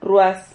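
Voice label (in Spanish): "rruas", Spanish syllable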